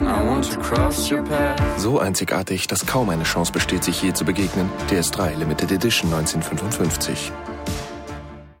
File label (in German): German TV Commercial; Citroen DS3